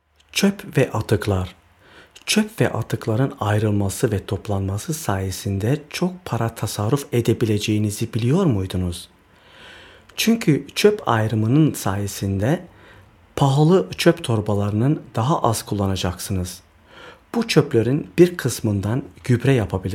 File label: tuerk; abfall